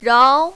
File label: "rao2", mandarin, female